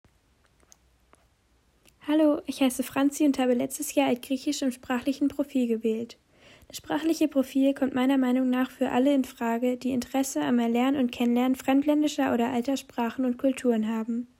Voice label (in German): griechisch; dritte fremdsprache